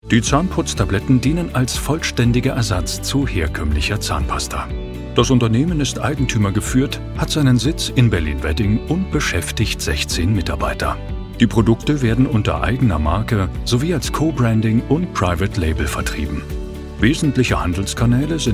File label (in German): Hoerprobe Imagefilm; DENTTABS